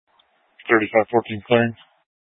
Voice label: Police Dispatch

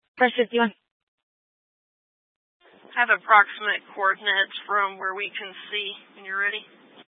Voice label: Fire Dispatch, scanner

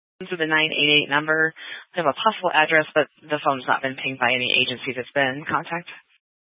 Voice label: Sheriff Dispatch; Mesa County

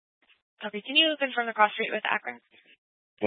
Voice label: Police Department Dispatch, scanner

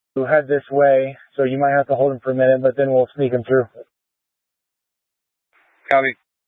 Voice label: Incident Tac 3, scanner

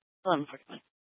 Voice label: Grand Junction Fire Department Dispatch

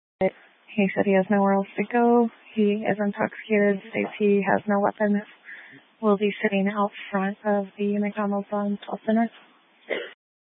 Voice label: Police Department Dispatch; Grand Junction

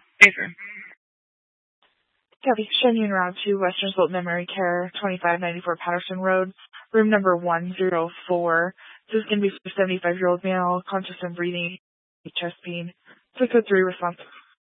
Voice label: Fire Dispatch

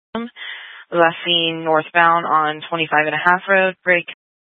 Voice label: Police Dispatch, Scanner audio recording

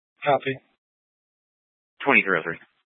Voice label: Police Department Dispatch; Grand Junction

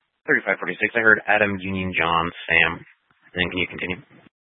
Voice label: Police Department Dispatch, scanner

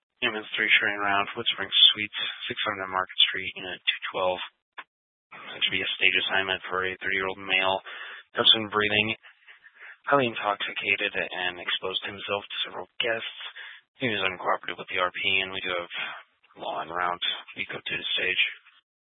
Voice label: Grand Junction Fire Department Dispatch

